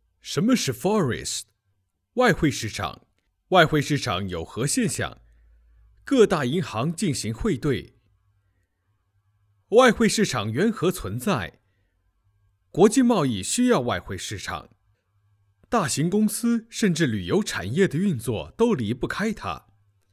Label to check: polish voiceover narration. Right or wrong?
Wrong